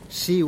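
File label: "shiu'", Teotitlán del Valle Zapotec; to squeeze out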